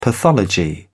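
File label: "pathology", British English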